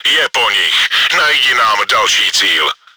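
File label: enemy killed by player, чешской озвучки; World of Tanks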